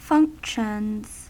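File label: "functions", American English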